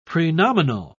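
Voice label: "prenominal", British English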